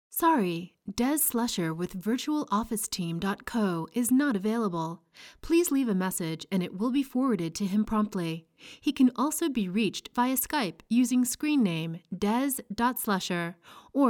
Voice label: Voicemail, VoiceOver